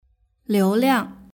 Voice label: "liuliang", Mandarin; dianhuaka